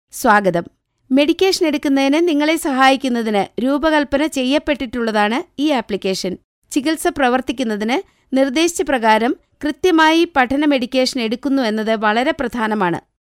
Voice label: female malayalam voice over upbeat